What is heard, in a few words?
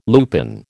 "lupine", American